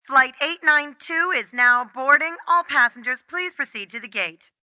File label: Flight, Airport, PA, announcements, female